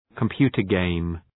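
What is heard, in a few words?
"computer game", English; Ουσιαστικό; παιχνίδι υπολογιστή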